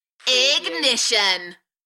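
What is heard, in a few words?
British female Ignition Enthusiastic; beanstalk audio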